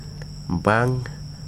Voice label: "red", wahgi; lae unitech